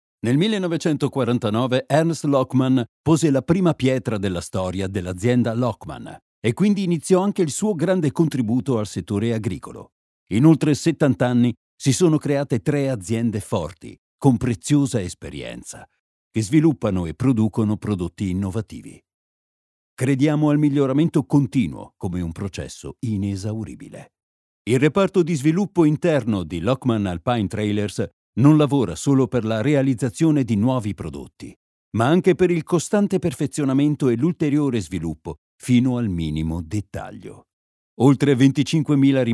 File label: italian corporate videos